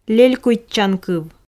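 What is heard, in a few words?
"лелькуйтчанкыв", Komi, female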